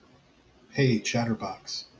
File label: hey chatterbox, en-us